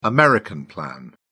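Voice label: "american plan", English, British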